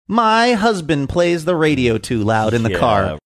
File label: saying my husband plays radio too loud in car funny